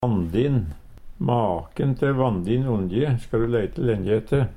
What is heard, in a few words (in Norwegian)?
"vandin", Numedalsmål; Maken te vandin onje ska du leite lenje ette.; Adjektiv